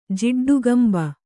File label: "ಜಿಡ್ಡುಗಂಬ", Kannada